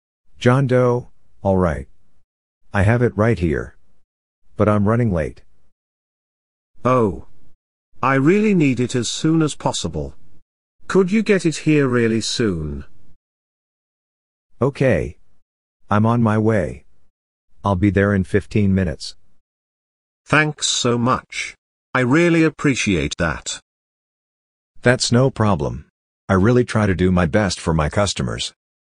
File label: Telephone delivery